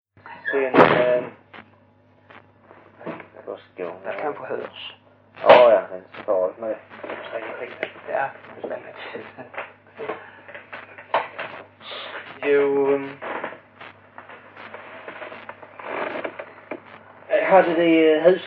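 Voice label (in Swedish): Hörja Skåne, dialekter; Folkminnen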